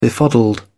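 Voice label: "befuddled", en, uk British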